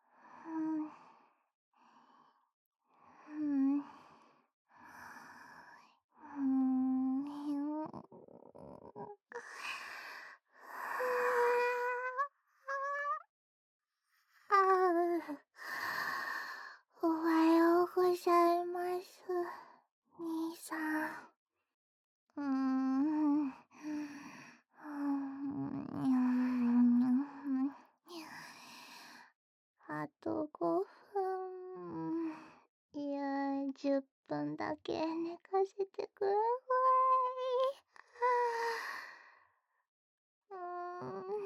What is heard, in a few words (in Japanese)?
寝起きボイス, ASMR